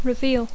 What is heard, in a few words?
"reveal", English